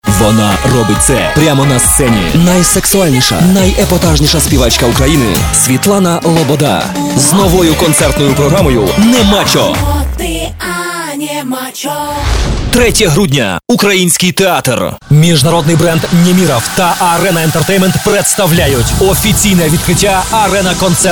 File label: ukr